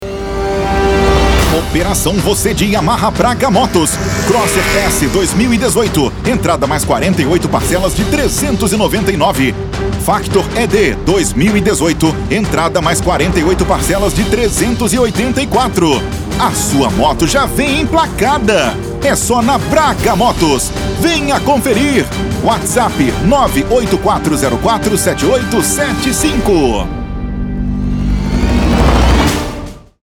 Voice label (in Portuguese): spot; braga motos; operacao voce de yamaha